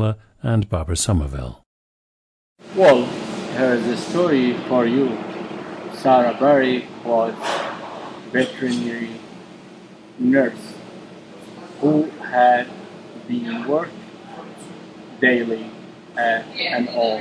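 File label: iraq, International Dialects of English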